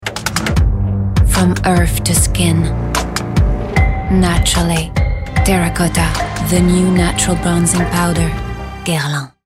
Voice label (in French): pub ENG; Guerlain; terracotta